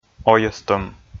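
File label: "ойӧстӧм", Komi, male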